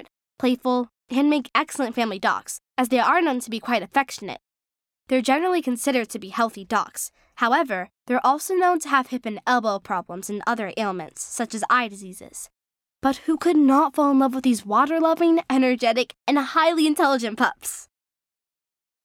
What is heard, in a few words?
Narration Labradoodles